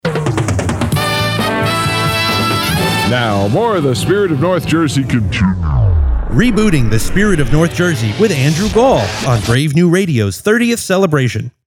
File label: name shout; Hit Radio; Reboot